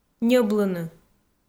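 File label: "ньӧблыны", Komi, female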